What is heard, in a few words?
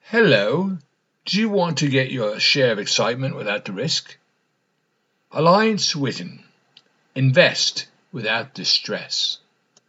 English Narrator